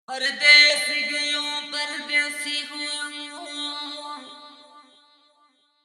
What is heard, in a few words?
DI Dly, pitch correction for vocal effects